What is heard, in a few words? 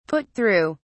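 "put through", British English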